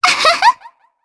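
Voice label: Vox Happy, Japanese; Shea; kingsraid audio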